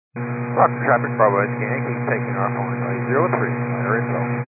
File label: 122 725MHz, scanner radio